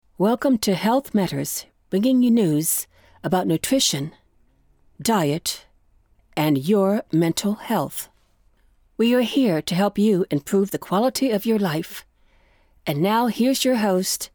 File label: podcast clear calm serious